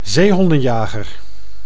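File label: "zeehondenjager", Dutch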